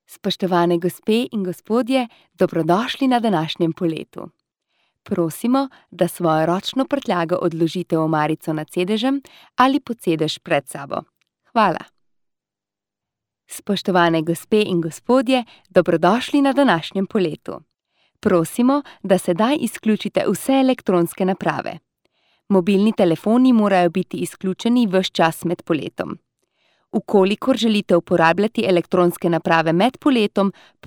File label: female slovenian airline announcement; devine voices